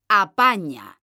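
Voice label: "apaña", Spanish, female; mekanta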